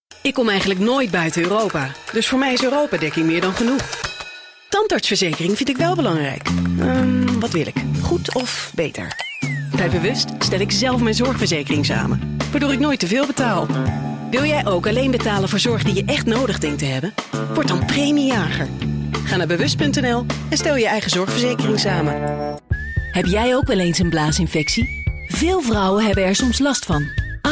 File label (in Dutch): vriendelijk